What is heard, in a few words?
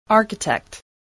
"architect", English